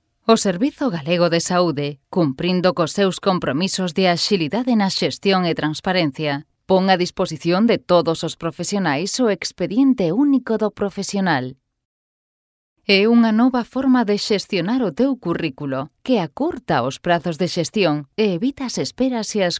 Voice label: locutora gallega galician voiceovers